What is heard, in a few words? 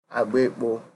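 "agbekpo", Nigerian Pidgin, male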